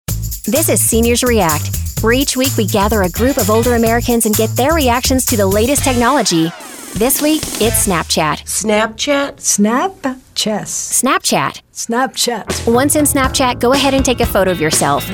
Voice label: Female Voiceover, TV narration